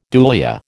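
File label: "dulia", American English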